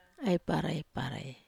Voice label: "ai parai", Wamesa

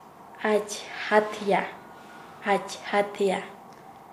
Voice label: Nasa Yuwe; vestirse; paez

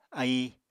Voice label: "aíi", Apatani

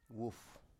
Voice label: "wuf", Biak

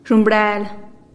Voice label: "zhumbrel" hat, teotitlan

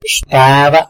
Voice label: "xtada", tlacolula; mi papa

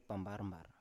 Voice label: "pambar-mbar", Biak

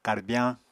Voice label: "karbyañ", Apatani

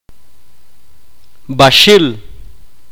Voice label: "jugar", mopan

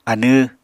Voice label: "anw", Apatani

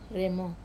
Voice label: "remo" tired, Wamesa

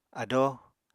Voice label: "adóo", apatani

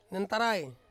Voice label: "nentarai", Ansus; body

